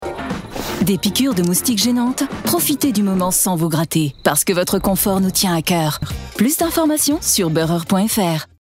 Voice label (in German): spot tv; beurer